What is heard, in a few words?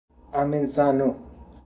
"Amenzano", Beelzebub pronounciations; Fourth Way